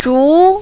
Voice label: "zhu2", mandarin, female